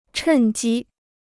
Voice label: "趁机", Chinese

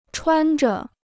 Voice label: "穿着", Chinese

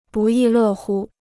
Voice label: "不亦乐乎", Chinese

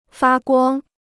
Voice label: "发光", Chinese

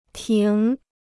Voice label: "停", Chinese

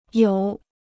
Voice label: "有", Chinese

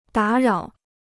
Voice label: "打扰", Chinese

